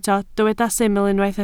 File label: "syml", Welsh